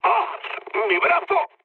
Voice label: damaged pilot, mood med, speech; War Thunder